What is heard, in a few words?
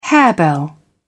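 "harebell", British English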